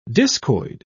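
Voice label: "discoid", English, British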